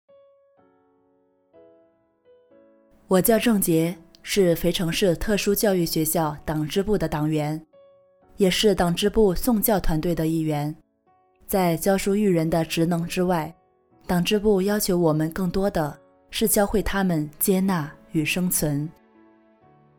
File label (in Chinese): 独白; 天空是什么颜色